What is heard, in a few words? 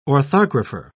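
"orthographer", British English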